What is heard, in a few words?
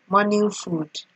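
"morning food", Nigerian Pidgin, female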